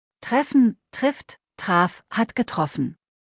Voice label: "treffen", deutsch; verben kurzliste

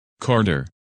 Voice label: "Carter", men names

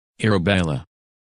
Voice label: "Arabella", women names; Английские имена: женские

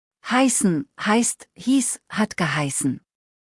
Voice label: "heissen", deutsch; verben kurzliste

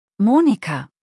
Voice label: "Monika", deutsch; maedchennamen

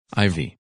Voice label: "Ivy", women names; Английские имена: женские

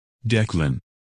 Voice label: "Declan", men names

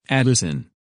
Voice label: "Addison", women names; Английские имена: женские

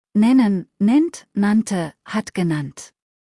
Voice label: "nennen", deutsch; verben kurzliste

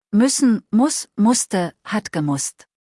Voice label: "muessen", deutsch; verben kurzliste

